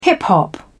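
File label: "hip-hop", British English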